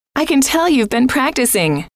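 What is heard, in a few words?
i can tell you have been practicing, female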